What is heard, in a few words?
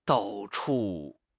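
"dao4 chu4", Mandarin Chinese; HSK4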